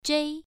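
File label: "zhei1", Chinese pronunciation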